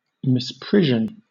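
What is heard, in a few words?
"misprision", British English; pronunciations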